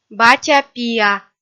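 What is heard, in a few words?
"батя-пиа" Komi, female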